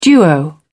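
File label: "duo", British English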